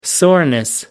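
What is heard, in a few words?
"soreness", British English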